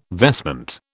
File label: "vestment", British